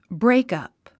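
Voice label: "breakup", English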